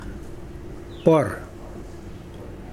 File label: "bowl", Mokilese